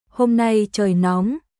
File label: "Hôm nay trời nóng", ベトナム語; 暑い